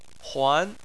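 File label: "huan" second tone, Chinese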